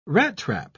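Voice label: "rattrap", British English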